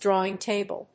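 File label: "drawing table", English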